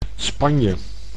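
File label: "Spanje", Dutch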